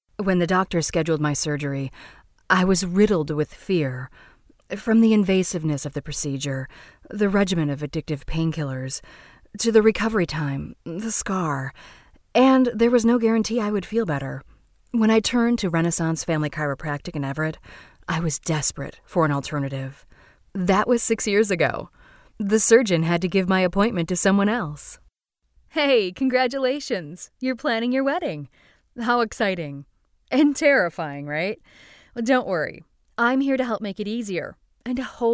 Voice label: Women Audio Book

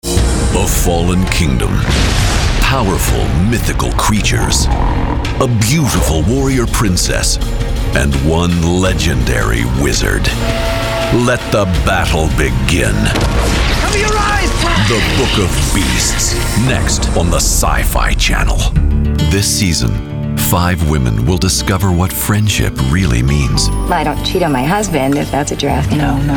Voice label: Promo, Men Promo Trailers

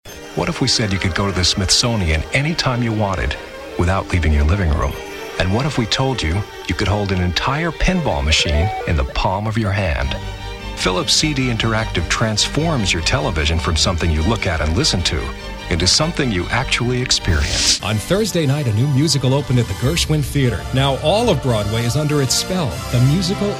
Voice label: Men Commercial

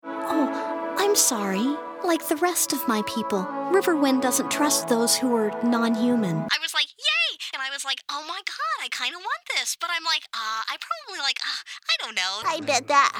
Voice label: Women Animation

